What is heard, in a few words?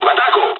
dialogs russian; War Thunder